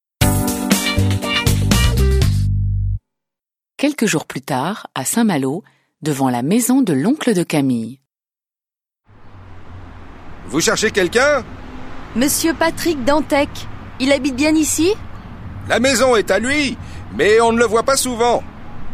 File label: French